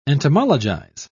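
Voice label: "entomologize", English, British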